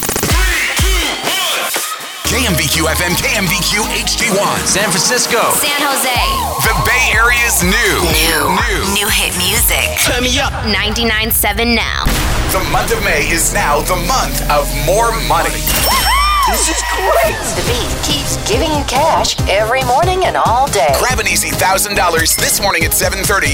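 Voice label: voice over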